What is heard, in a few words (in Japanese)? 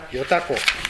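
"yotako", 南山形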